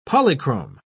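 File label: "polychrome", British English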